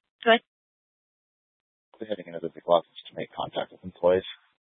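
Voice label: Police Dispatch, scanner audio recording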